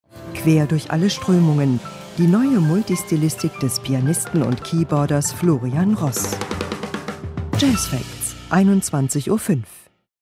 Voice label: Trailer Jazz